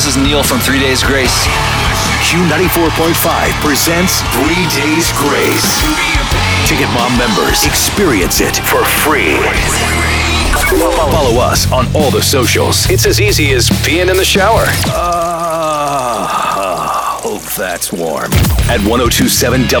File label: radio men rock; radio imaging